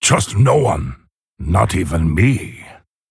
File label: Male Pandaren Play